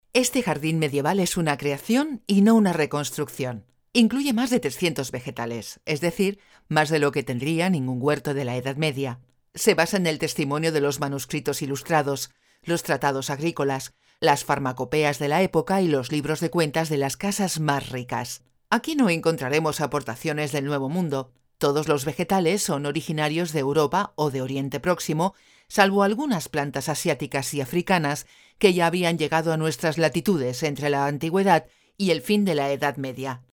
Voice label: Spanish Audioguide